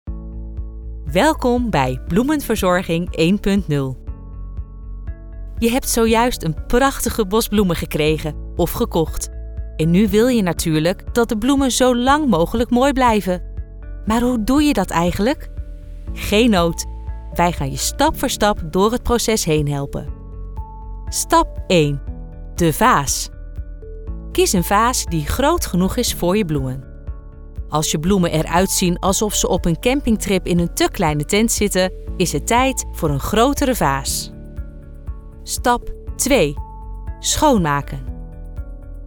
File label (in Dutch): E-learning, voice-over